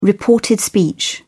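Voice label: "reported speech", British English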